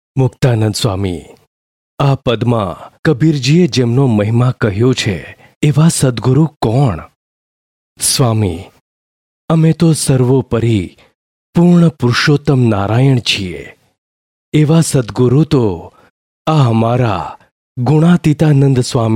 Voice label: Marathi Male; India